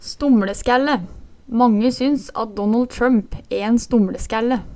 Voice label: stomLeskælle, Numedalsmål

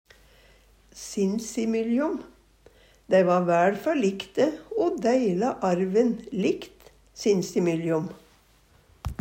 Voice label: "sinsimyljom", Numedalsmål; i mellom seg; Pronomen (andre)

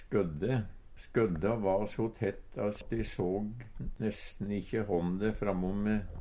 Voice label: "skødde", Numedalsmål; Substantiv hokjønn; Skødda va so tett at e såg nesten ikkje hånde framom me.

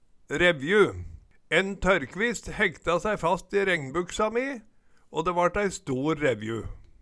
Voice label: revju, Numedalsmål

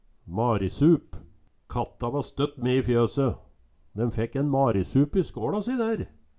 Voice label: marisup, Numedalsmål

